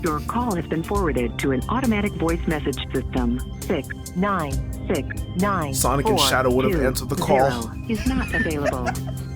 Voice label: voicemail